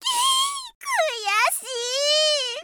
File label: witch lose